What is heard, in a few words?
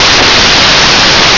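announce voice "are you sure", Japanese; Nintendo; July 2020 Gigaleak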